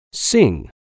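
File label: "sing", English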